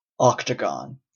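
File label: "octagon", Canadian English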